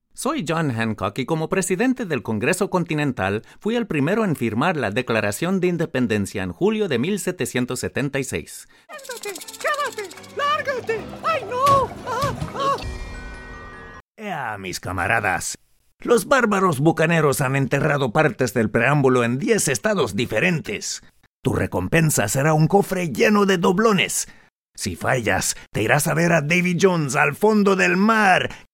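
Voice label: Character Spanish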